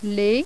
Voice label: french